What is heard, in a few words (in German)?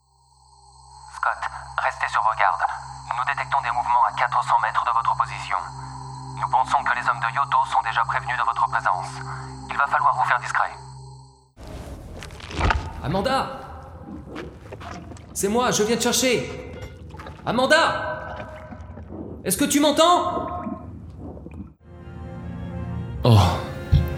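French Variabel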